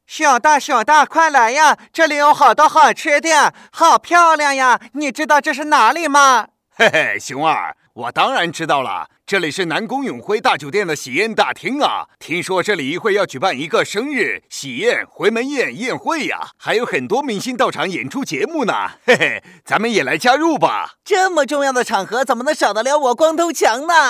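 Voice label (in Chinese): 男-模仿三人广告; 熊出没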